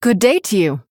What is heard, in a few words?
Female Human Greetings